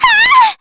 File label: Damage, jp; Maria L; kingsraid